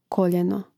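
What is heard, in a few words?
"koljeno", Croatian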